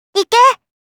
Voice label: "Go", Kawaii Voice, Commands

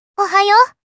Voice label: "Ohayou", Kawaii Voice, Greeting

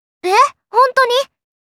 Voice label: "Hontoni", Kawaii Voice, Reactions

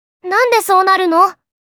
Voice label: "Nandesou", Kawaii Voice, Reactions

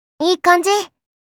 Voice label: Ekanji, kawaii voice; Positive

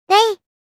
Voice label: "Ei", kawaii voice; Positive

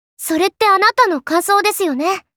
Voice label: Kansou, Kawaii Voice; Memes

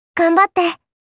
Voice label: "Fight", kawaii voice; Positive

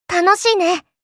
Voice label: "Smile", kawaii voice; Positive

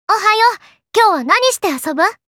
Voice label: "Nanishite", Kawaii Voice, Greeting

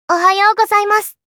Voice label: "Good morning", Kawaii Voice, Greeting

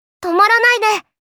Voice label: "Do not stop", Kawaii Voice, Commands

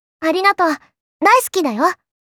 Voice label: "Arigatou", Kawaii Voice; Greeting

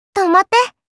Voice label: "Tomatte", Kawaii Voice, Commands

